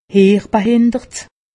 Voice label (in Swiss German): "heerbehindert", alsace; medecine